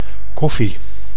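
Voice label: "koffie", Dutch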